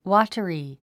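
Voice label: "watery", English word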